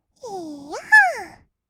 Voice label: いーやっはーっ, kawaii voice; ASMR; 1200 kawaii voice!!; 音ゲー

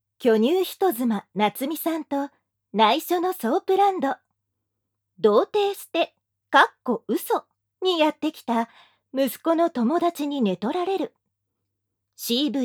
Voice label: タイトルコール, ASMR

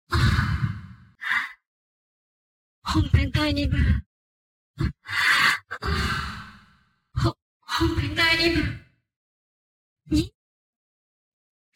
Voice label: 語り

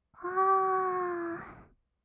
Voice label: あー……, kawaii voice; ASMR; 色々なワンショット

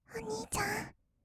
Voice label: "おにいちゃん", kawaii voice; ASMR; 二人称

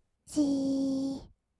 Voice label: "じーっ", kawaii voice; ASMR; 色々なワンショット

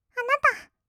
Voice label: "あなた", kawaii voice; ASMR; 二人称

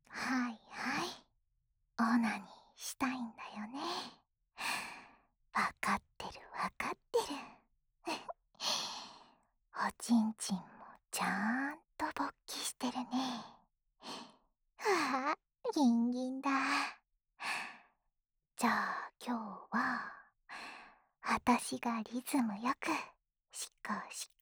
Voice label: オナサポ用シコシコボイスループ（左耳）, ASMR

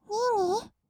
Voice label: "にぃに", kawaii voice; ASMR; 二人称

